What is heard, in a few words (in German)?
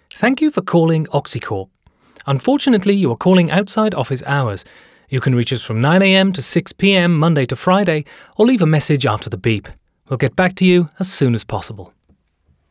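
British telefon, englisch; sprecher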